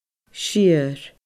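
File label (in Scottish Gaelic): "sior", Scottish Gaelic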